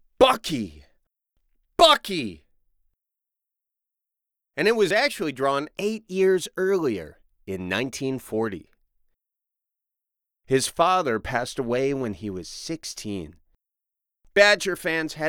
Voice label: VO pickups; BUCKY